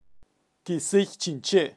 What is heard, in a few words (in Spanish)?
"kisiy" chinche, kaqchikel, pronunciacion de animales